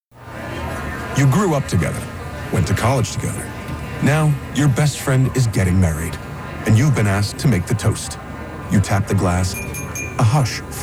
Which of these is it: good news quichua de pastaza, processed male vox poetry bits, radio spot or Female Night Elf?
radio spot